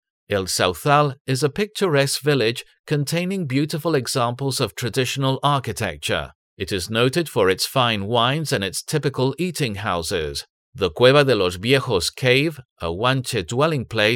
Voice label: english voiceover audioguia tenerife; audioguía turística de Tenerife en inglés